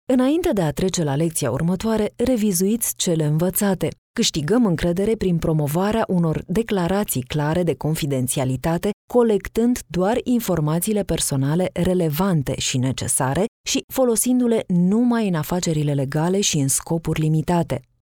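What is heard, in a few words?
romanian female e-learning; voice demos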